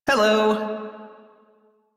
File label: Crew Greet, Male, en; Dialog; Warframe; Railjack Crew; Perrin Sequence